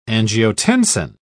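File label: "angiotensin", British English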